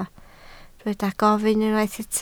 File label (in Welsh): "gofyn", Welsh